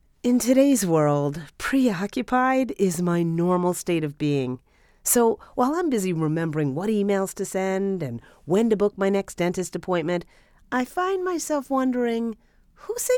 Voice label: Conversational